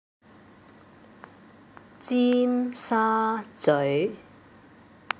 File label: pronunciation slow